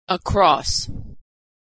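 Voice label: "across", American English; vowel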